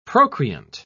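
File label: "procreant", English, British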